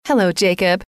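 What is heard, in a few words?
"hello", female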